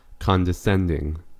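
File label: "condescending", American English; pronunciations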